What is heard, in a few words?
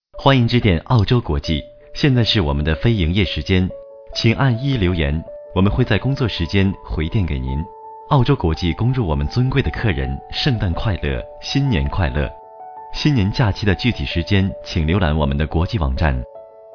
chinese mandarin ivr